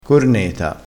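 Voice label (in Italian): "corneta", dialettale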